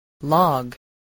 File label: "log", spelling